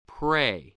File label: "pray", voice, spelling